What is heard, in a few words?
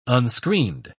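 "unscreened", English, British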